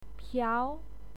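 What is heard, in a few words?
"piao2", Mandarin Chinese pinyin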